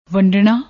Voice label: "wandna", Punjabi; ਵੰਡਣਾ

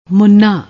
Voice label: "munna", Punjabi

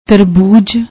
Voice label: "tarbooj", Punjabi

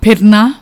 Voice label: "firna", Punjabi

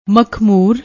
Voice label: "makhmur", Punjabi; ਮਖਮੂਰ

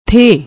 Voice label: "theh", Punjabi; ਥੇਹ

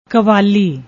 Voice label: "kwali", Punjabi; ਕਵਾਲੀ

